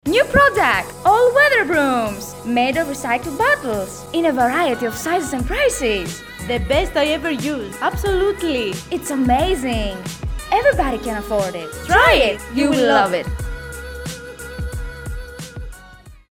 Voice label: advertisement radio; Receco